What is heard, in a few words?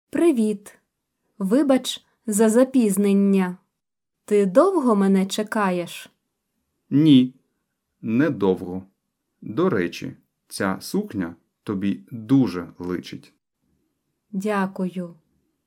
Dialogue Slow